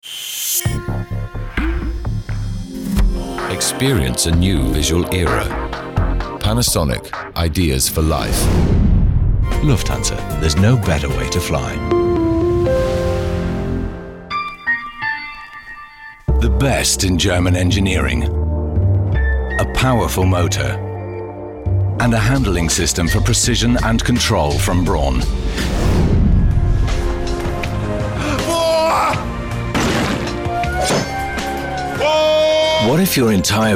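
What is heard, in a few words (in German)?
UK English Werbung Variabel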